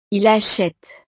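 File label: "il achete", French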